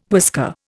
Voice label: "whisker", Indian